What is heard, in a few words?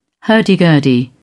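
"hurdy-gurdy", English, British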